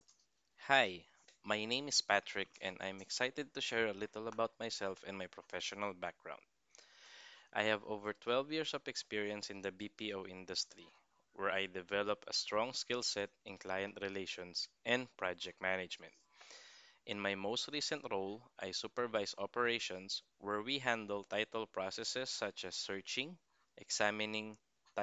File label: Voice Recording, Self Introduction; Brivity Virtual Assistants; Reporting Analyst Corporate Support for Qualfon Philippines